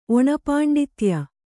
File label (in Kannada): "ಒಣಪಾಂಡಿತ್ಯ", kannada